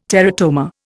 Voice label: "teratoma", Indian

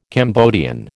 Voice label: "Cambodian", American

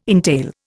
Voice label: "entail", Indian

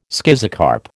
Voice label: "schizocarp", American

